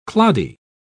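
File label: "cloddy", British English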